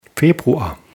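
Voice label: "februar", German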